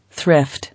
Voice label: "Thrift", English